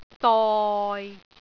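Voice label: "doi6", Cantonese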